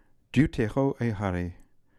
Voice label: "deuteroehary", pronounciations; Fourth Way; Beelzebub